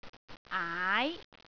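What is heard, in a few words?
"aai2", Cantonese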